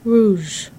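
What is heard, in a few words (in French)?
"rouges" French adjective, masculine plural; Colors and Adjectives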